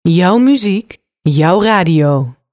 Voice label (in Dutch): Jouw muziek, Jouw Radio, Zonder Effect